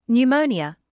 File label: "pneumonia", medical English